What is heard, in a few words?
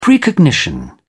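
"precognition", British English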